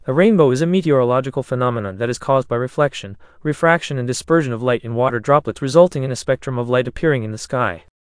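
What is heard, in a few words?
speaker, American English; piper-voices; en US; libritts r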